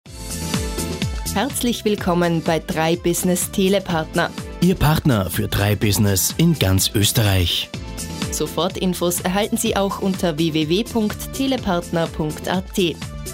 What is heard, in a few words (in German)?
Willkommensansage; 3Business Telepartner